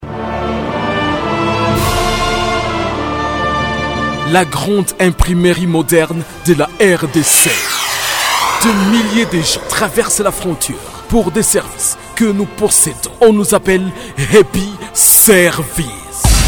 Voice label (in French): PUBLICITE